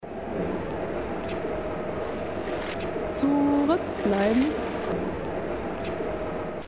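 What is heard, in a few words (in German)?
ansage zurückbleiben; linie1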